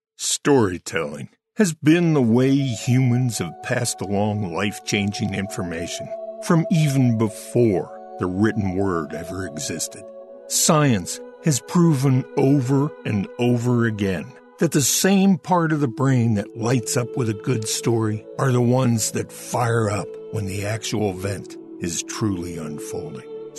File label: Storyteller